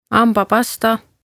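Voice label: "hambapasta", Estonian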